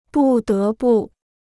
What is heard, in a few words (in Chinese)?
"不得不", Chinese